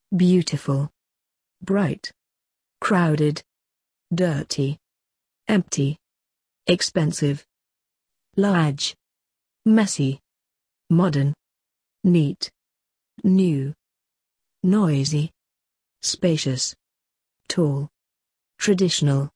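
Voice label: British; adj places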